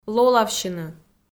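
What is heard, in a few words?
"лолавсьыны", Komi, female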